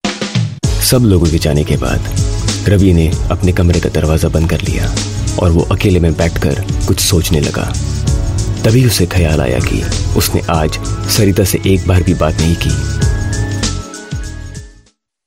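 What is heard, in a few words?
Hindi Drama, India Male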